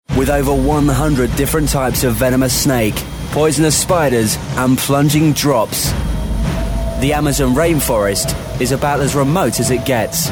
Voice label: TV, Film and Documentary; Voice-over demos